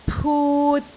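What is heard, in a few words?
"put3", Cantonese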